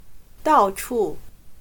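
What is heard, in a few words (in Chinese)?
"到处" Mandarin Chinese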